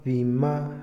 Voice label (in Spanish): "huir", Otomí, hombre; INALI